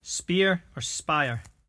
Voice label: "speer or spier", Cromarty; [sp-EE-er or sp-Y-ar]; ask, to; Verb